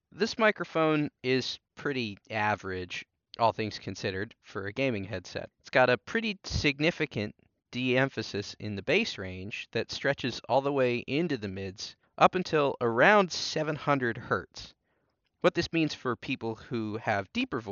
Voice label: HyperX Cloud Flight S mic sample

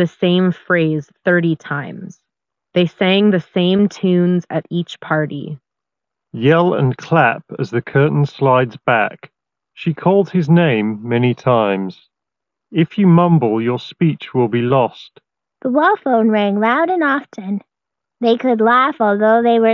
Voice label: Sony WH XB910N Ideal microphone

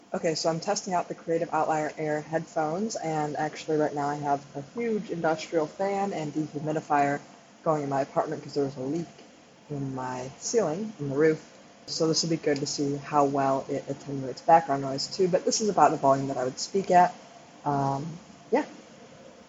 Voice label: Creative Outlier Air microphone

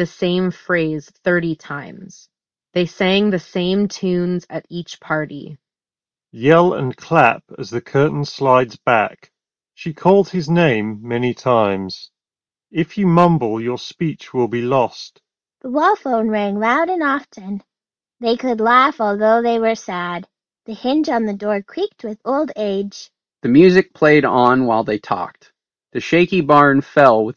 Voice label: buds dongle Ideal microphone samples; Sony WF-G700N Inzone

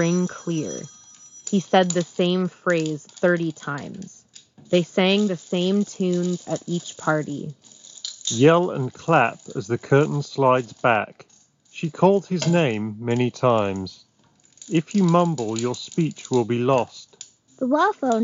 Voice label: Shure Aonic50 Gen2 Office microphone sample

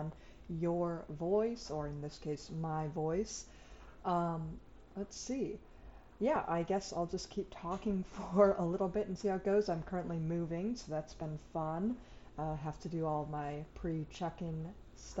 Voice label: Samsung Galaxy Buds Live microphone

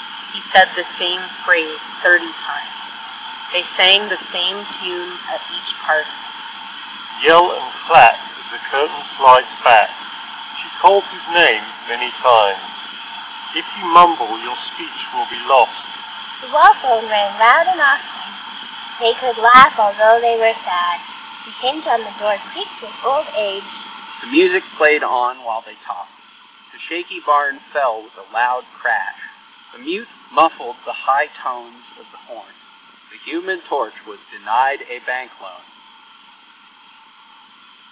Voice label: Bose Sport Earbuds Wind microphone sample

